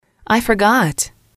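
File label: "I forgot", English, female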